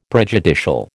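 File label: "prejudicial", American English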